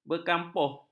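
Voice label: "berkampuh", Malay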